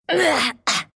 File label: anger, ru; dota2